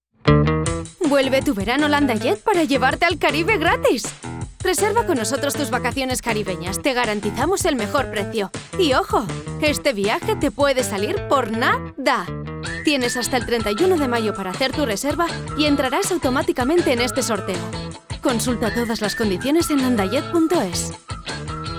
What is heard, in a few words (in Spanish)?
Publicidad radio